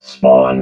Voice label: "spawn", robot